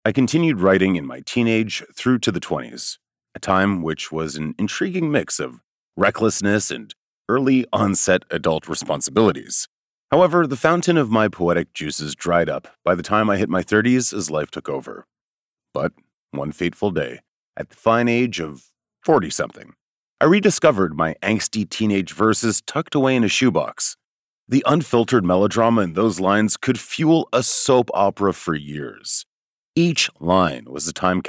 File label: Audiobook Poetry; Enlightened Pathways